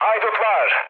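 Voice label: Turkish enemy detected, mood low, speech; War Thunder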